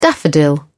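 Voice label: "daffodil", British English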